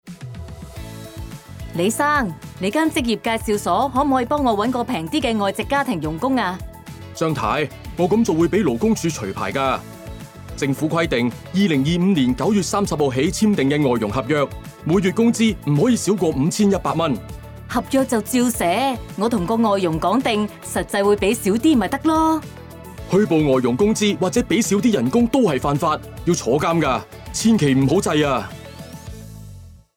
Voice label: Cantonese; Underpaying FDHs is a serious offence